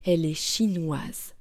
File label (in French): "Elle est chinoise", French